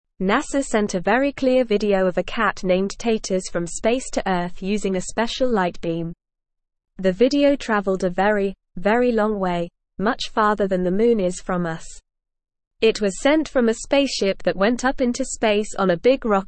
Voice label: English Lower Intermediate NORMAL Reading; NASA Sends Movie of Cat Playing with Light from S; English Newsroom